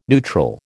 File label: "neutral", American